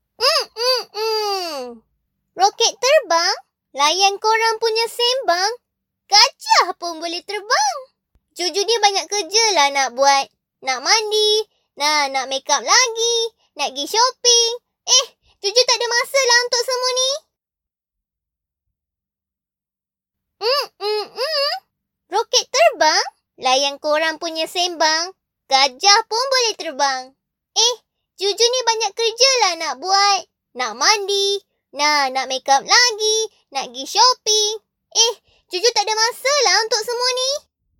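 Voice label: Malay; bahasa melayu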